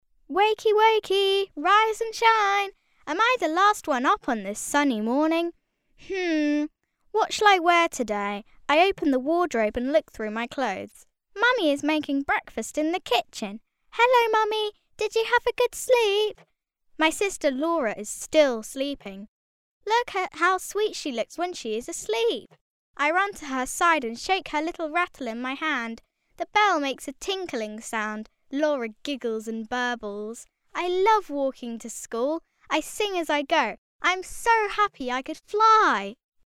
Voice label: girl british voice over character, english british